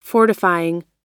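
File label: "fortifying", US English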